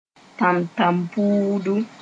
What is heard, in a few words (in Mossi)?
"tãntãmpuudu", moore; slaver